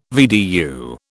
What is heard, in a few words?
"VDU", British